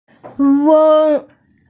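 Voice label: "weng1", Mandarin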